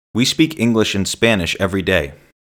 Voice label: fast we speak english and spanish everyday, accent